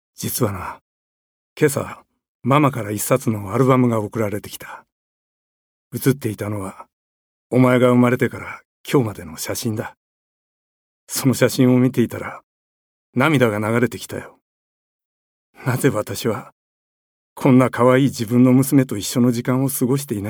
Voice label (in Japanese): 父親